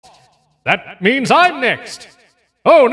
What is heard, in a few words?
announcer infection zombies win; open fortress